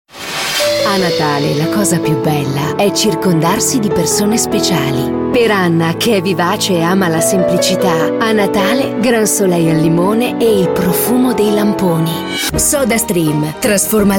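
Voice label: F, Italian, female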